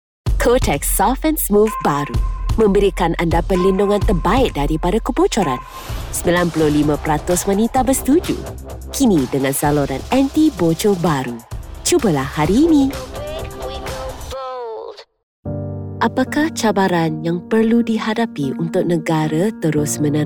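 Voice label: Malay Malaysia female voice over, sales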